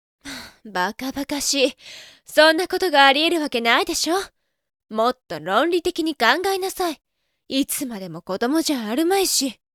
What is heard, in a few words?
lady